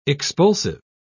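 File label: "expulsive", British English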